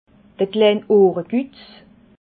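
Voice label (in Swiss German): "ohrekutz", Alsatian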